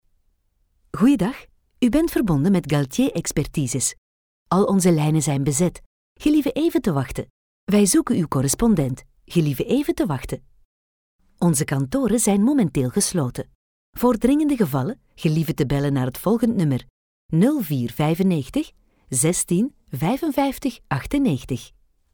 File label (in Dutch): Telefoontoepassing, telefonie; Telephon; Galtier